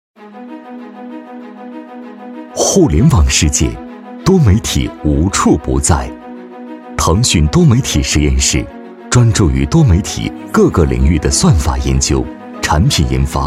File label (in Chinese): 男 企业 科技感; 腾讯多媒体